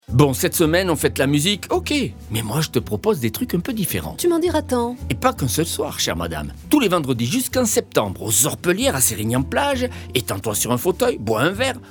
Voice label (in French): spot radio beziers jazz sous les etoiles; orpellieres